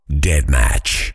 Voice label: "deathmatch", fighter voice over; kenney voiceoverfighter